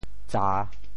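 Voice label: "tsa3", Teochew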